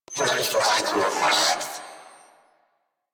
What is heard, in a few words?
Reload, Female; en; Warframe; New War; Narmer Grineer